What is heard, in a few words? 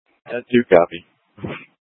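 County Fire, Scanner audio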